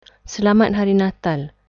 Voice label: malay